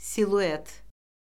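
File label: "siluet", Azerbaijani; Orfoqrafiya Lüğəti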